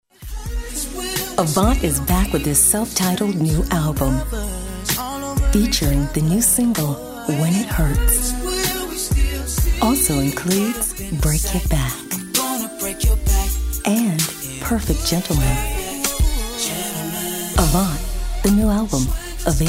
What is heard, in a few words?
smooth mellow